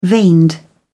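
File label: "veined", British English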